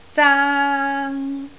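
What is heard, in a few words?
"zaang1" Cantonese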